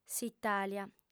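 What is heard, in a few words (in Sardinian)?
"s'itàlia", female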